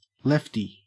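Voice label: "lefty", Australian English; pronunciations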